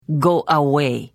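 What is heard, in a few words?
Service, Khajiit female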